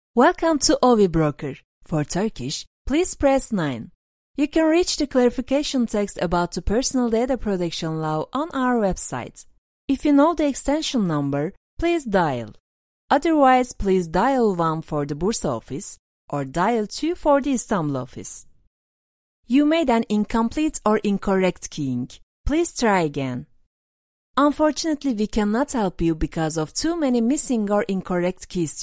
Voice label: ingilizce santral; ovi broker

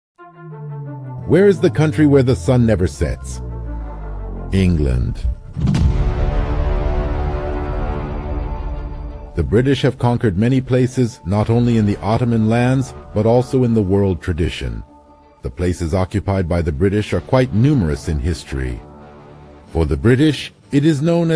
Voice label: belgesel

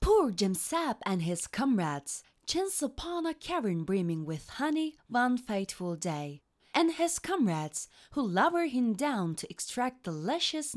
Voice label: ingilizce giris

